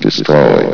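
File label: "destroy", male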